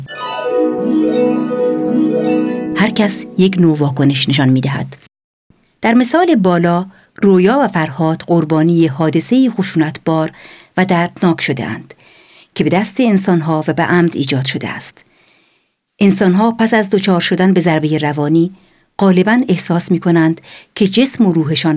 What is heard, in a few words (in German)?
Farsi; NAWA